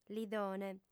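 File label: "lidone", female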